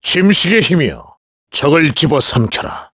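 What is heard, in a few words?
Vox Skill, Korean; KaselB; kingsraid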